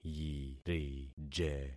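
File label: "yirije", concatenated syllables; Affixing experiment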